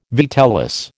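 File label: "vitellus", American English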